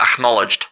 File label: ackno ru